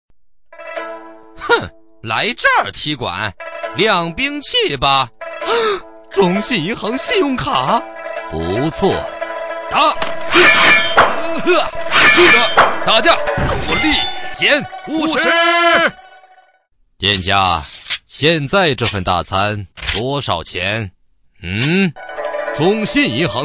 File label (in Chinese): 男 广告; 中信银行